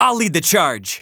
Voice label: VO en